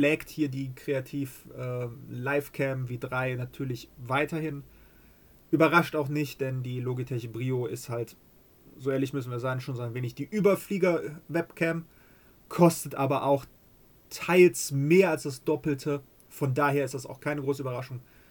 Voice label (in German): Mikrofon